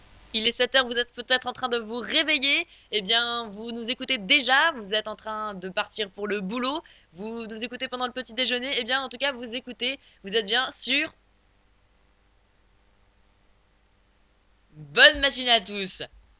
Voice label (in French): matin 7h, jingle